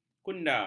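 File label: "kunda", Malay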